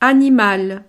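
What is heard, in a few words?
"animal", French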